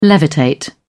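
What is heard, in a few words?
"levitate", British English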